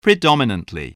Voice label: "predominantly", voice, spelling